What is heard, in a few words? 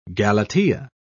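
"galatea", British English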